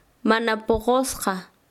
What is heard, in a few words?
Chanka, quechua; inmaduro